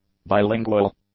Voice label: "bilingual", English; adjectives